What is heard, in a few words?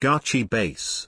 "gachibass" pronunciation